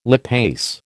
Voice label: "lipase", American

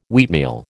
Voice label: "wheatmeal", American

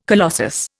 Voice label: "colossus", Indian

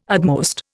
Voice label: "utmost", Indian

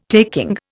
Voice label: "taking", Indian

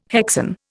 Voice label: "hexane", Indian

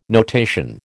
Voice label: "notation", American

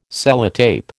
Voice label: "Sellotape", American

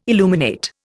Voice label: "illuminate", Indian

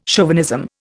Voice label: "chauvinism", Indian

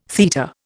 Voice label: "theta", Indian

